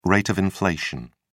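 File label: "rate of inflation", British English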